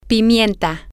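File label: pepper, Spanish, female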